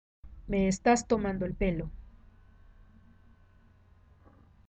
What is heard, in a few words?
pronunciation es me estás tomando el pelo